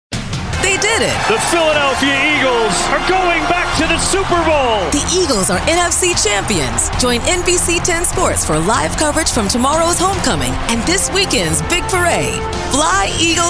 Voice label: TV Affiliate, Female Voice Over